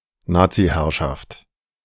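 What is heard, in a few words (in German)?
"Nazi-Herrschaft", German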